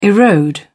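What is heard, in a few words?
"erode", British English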